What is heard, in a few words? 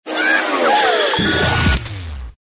comedy yahoo